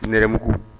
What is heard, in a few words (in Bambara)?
"nɛrɛmugu", Bambara; couleurs; Mots Bambara